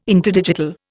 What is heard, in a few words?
"interdigital", Indian